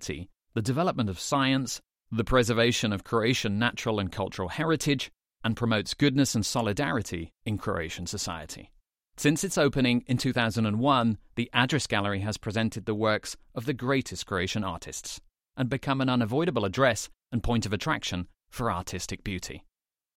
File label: corporate advertising, english GB